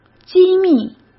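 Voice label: "机密", Mandarin Chinese; chinese-flashcards; hsk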